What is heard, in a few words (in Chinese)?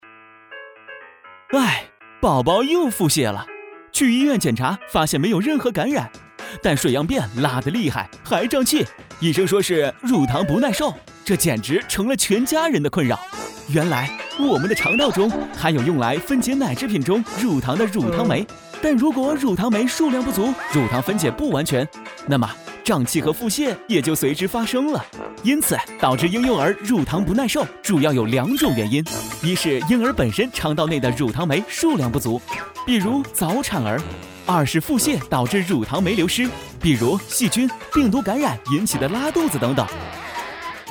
男; 飞碟说; 乳糖